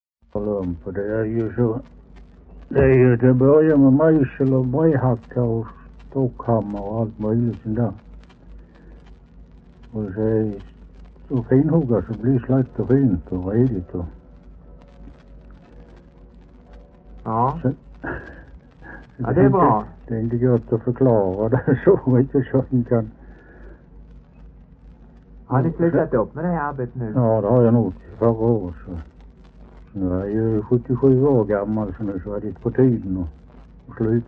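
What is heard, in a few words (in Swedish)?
Kinnared Halland, dialekter, svenska sagor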